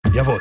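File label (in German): "Jawohl", German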